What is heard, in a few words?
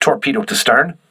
voice message torpedo rear, crew ships english